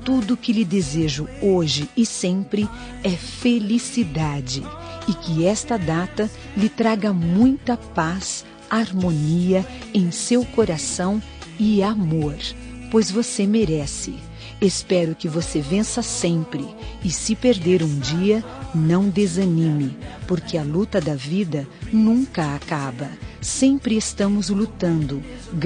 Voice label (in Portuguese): pessoa especail fem, Aniversário de Pessoa Especial – Voz Feminina; Telemensagens para todas as ocasiões